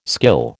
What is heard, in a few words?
"skill", American